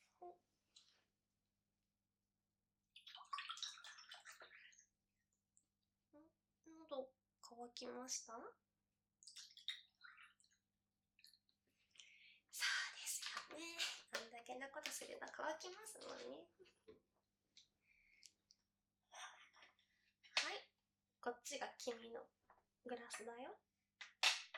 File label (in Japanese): ボイスドラマ, バイノーラル, ASMR; 「ティータイム」